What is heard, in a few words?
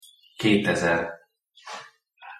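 "ketezer", Hungarian pronunciation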